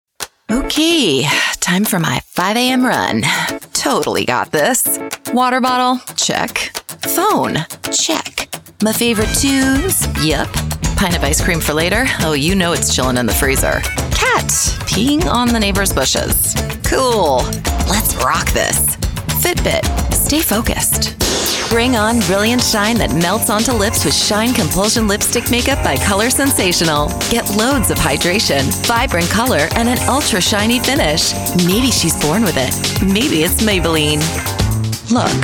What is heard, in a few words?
Commercial